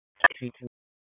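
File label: Police Downtown District Dispatch, p25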